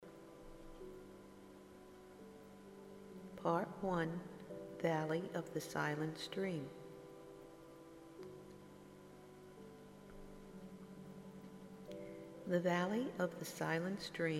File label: Meditative Imagery for Inner Healing; Valley of the Silent Stream